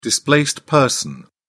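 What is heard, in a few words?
"displaced person", British English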